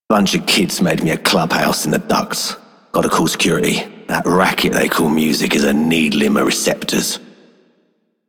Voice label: Male Worker, Male One, en; Solaris Venus

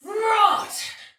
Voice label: Attack, Soldier Female, Battle; Dialog; Barks; Sinecure

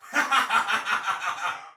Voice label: Pre Death Soldier Male; Dialog; Barks; Battle; Sinecure

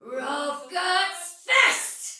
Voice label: Attack Heavy Soldier Female, Battle Barks; Dialog; Sinecure

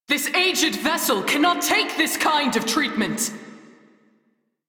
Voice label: Crew Ship Low, Female; Dialog; en; Warframe; Railjack Crew; New Loka

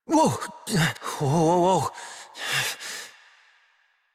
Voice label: Operator Voice; en; Warframe; Jade Shadows Quest

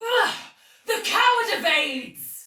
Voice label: Sight Lost Shout, Soldier Female, Battle; Dialog; Barks; Sinecure

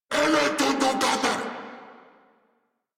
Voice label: Player Spotted, Battle Barks, en; Grineer Ghoul Drill Sargeant

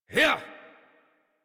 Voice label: Horse Sprint Adult; Warframe; Duviri

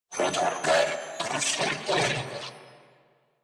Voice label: Attack Grineer Male; New War; Narmer

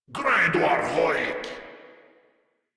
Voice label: Reload, Grineer Male; Warframe

